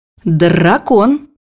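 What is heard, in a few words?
"dragon", Russian; bukvar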